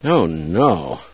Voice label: startle, scientist; source engine; hl1; video games